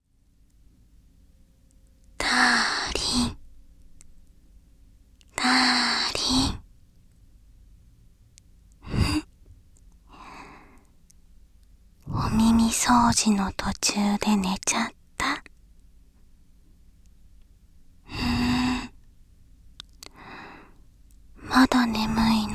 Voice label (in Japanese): 左 声のみ ノイズ除去なし